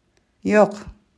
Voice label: "Yoq", Crimean Tatar